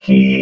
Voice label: "key", robot

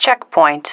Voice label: "checkpoint", female

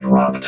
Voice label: "dropped", robot

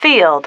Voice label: "field", female

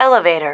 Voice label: "elevator", female

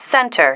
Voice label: "center", female

